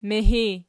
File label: "mihi", Archi